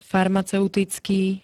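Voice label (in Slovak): "farmaceutický", Slovak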